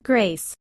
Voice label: "grace", English